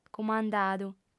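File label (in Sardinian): "cumandadu", female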